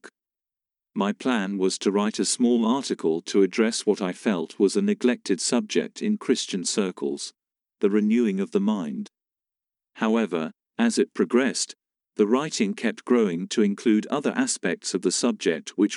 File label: audiobook preface chapter1; Light in the darkness